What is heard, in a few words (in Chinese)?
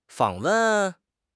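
"访问", Chinese; HSK6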